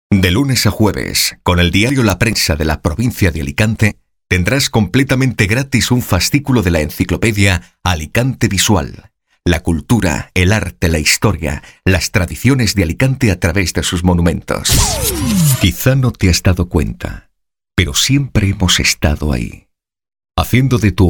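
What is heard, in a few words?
male Castilian Spanish voice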